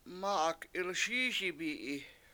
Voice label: mA7ag 7ilSIISibi7i The Stick Is Straight, Washo